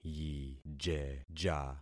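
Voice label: "yijeja", concatenated syllables; Affixing experiment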